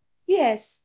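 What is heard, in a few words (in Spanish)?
Voice Acting es F, Spanish, female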